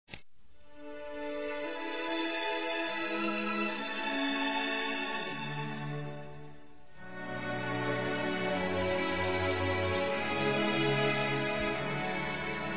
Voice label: Aniversário Tio Feminino; Aniversários; Aniv Familiar

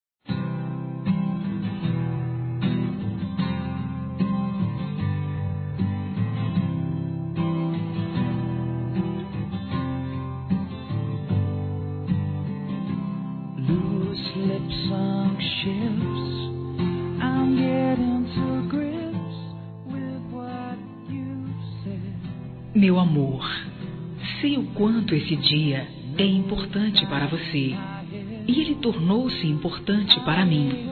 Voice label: Aniversário do Noivo F, Telemensagens; Aniversários; Aniv Familiar

